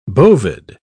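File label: "bovid", British English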